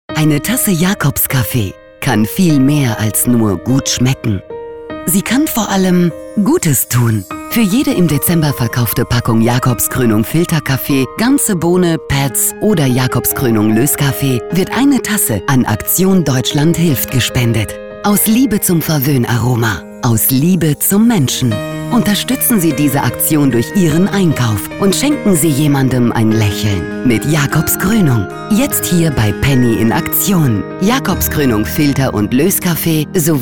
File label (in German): Werbung; Jacobs